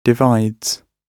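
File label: "divides", British English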